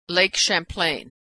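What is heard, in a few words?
"champlain", American English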